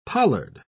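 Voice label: "pollard", British English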